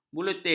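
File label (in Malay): "buletin", Malay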